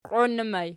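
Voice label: "qioinnu" ergative plural, Archi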